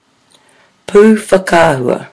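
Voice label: "pu whakaahua", Māori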